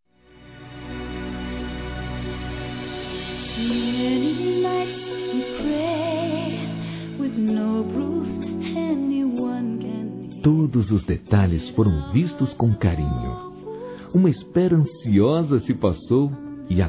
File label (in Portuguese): P QUEM SE TORNOU MÃE, Telemensagens Dia das Mães, Masc; Telemensagens 2017